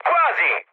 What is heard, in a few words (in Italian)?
bomb failure, mood med, speech it; War Thunder